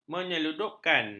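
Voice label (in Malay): "menyeludupkan", Malay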